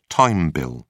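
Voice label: "time bill", British English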